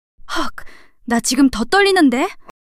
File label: fluster; monologue